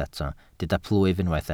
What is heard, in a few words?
"plwyf", Welsh